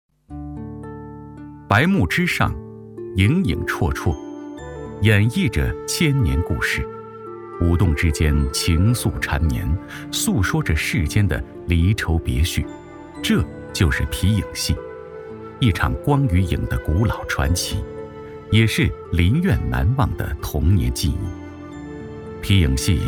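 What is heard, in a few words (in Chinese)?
男国大音-非遗-皮影戏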